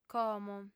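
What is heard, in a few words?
"como", female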